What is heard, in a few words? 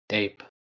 "deep", Middle English